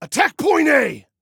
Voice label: ATTACK, Male